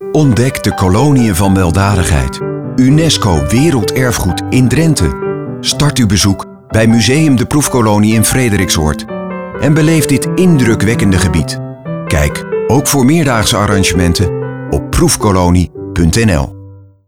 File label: Commercial; Museum De Proefkolonie Gevangenismuseum; NPO